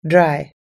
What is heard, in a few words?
"dry", us english